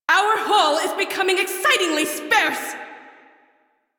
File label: Crew Ship Low, Female; Railjack Crew; Cephalon Suda